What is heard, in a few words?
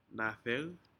"nadec", Aneityum; fish